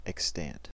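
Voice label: "extant", American English; pronunciations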